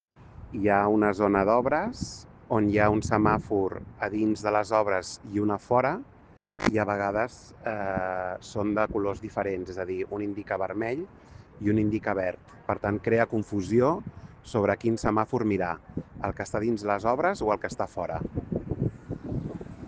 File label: Voice message